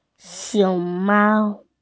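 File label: "panda", Mandarin Chinese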